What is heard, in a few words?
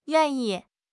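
"yuan4 yi4", Mandarin Chinese; HSK3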